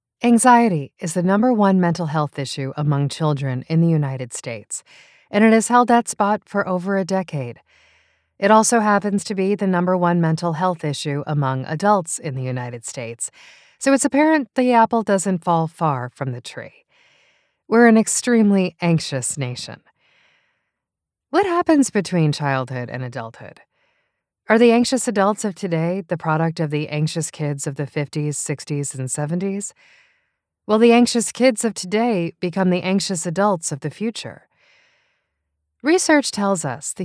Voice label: audiobooks nonfiction